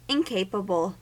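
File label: "incapable", US English; pronunciations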